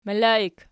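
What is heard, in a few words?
"melaik", nominative, Archi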